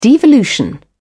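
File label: "devolution", British English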